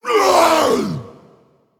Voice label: Male Pitlord Death